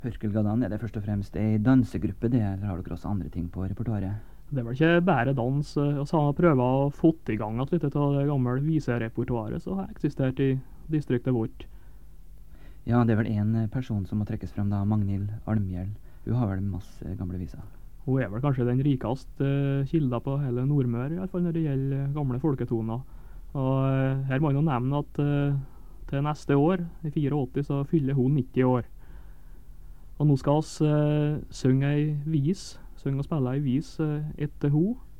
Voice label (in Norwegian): Tale